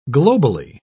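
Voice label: "globally", British English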